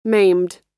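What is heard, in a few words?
"maimed", American English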